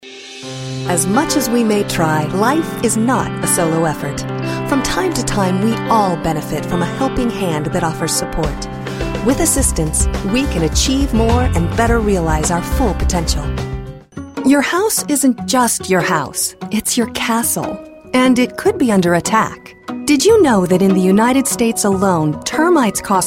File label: narration, female